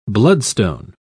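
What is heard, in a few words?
"bloodstone", British English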